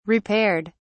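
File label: "repaired", English, British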